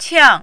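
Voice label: "qiang", Mandarin, fourth tone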